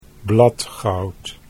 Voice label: "bladgoud", Dutch